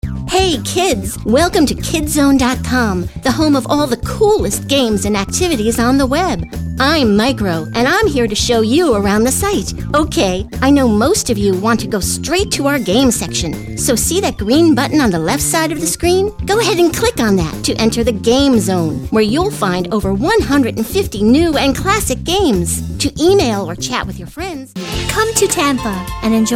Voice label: narrative